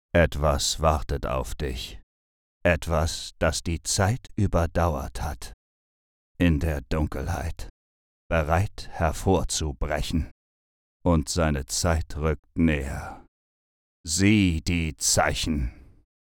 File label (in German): Trailer; Sieh die Zeichen